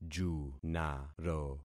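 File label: "junaro", concatenated syllables; Affixing experiment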